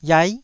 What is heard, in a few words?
"iai9", Taiwanese syllable